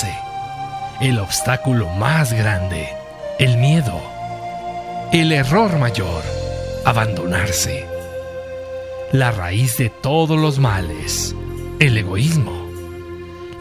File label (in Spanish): locutor emotivo el dia mas bello